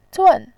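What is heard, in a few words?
"zun" Mandarin Chinese, fourth tone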